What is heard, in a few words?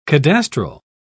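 "cadastral", British English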